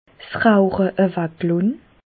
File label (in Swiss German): rauche, Bas Rhin; medecine; supprimer le tabac